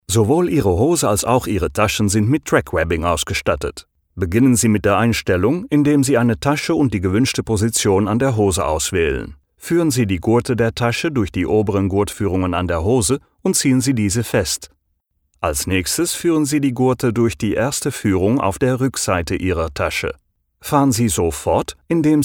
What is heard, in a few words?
vo German neutral; NEUTRAAL